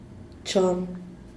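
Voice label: "chon", tres, Zapotec, Díaz Ordaz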